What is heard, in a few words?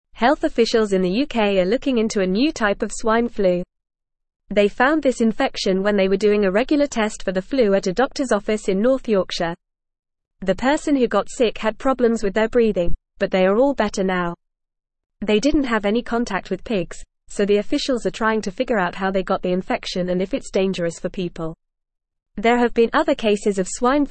Upper Intermediate FAST Reading, English; English Newsroom; UK Detects First Case of New Swine Flu Strain